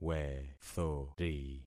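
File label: "wethori", concatenated syllables; Affixing experiment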